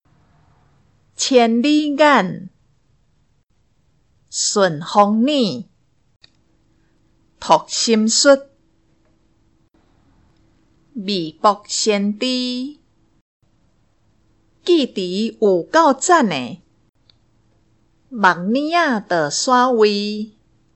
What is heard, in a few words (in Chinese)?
閩南語; 國小節慶海報; 教師節